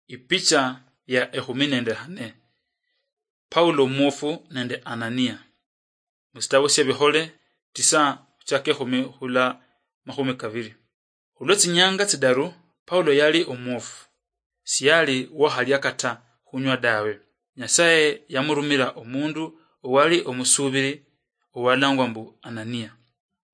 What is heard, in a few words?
acts of the holy spirit luyia marachi, drama